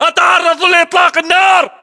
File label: inform suppressed generic, battle chatter, english